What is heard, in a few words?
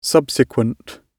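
"subsequent", British English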